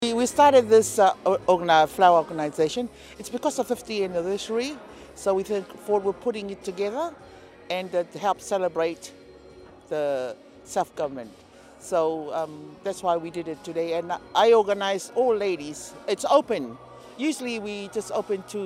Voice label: english